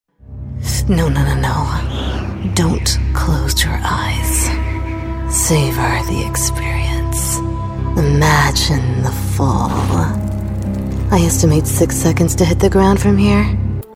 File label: Cold and calculating, video game